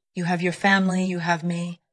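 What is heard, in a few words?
VoiceActing Spanish, female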